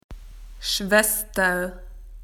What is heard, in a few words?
"sister", AU; PictureDictionary